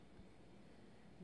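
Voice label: "dxangusiu", Teotitlán del Valle Zapotec; dios de relampago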